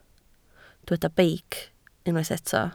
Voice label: "beic", Welsh